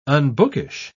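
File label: "unbookish", British English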